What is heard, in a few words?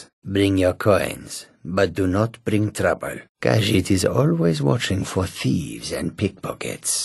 male; khajiit; always watching